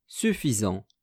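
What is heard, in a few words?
"suffisant", French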